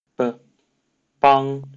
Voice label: "帮", Mandarin Chinese; b

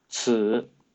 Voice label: "此", Mandarin Chinese

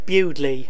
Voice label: "Bewdley" pronunciation

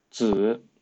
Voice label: Mandarin Chinese pronunciation z "子"

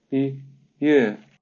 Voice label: Mandarin Chinese ü "月" pronunciation

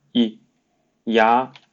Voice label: "牙", Mandarin Chinese; y

